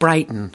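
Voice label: "brighten", US English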